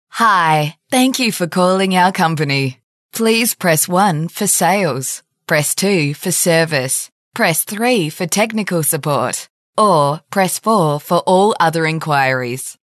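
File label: Generic IVR, voiceovers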